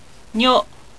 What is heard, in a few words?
"nyo", Japanese